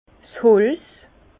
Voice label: "holz", Bas Rhin; vin; bois

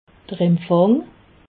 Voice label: "empfang", alsace

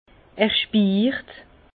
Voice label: "spiirt", Bas Rhin; vin

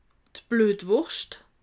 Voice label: "bluetwurscht", alsace

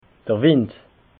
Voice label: "wind", alsace

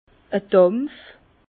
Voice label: "dampf", Bas Rhin; vin

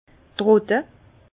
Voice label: "trotte", Bas Rhin; vin; pressurer le vin raisin

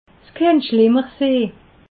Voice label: "schlimmer", alsace

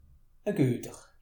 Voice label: "gueter", alsace

